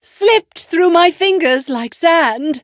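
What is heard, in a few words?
defeat, English; Cookie Run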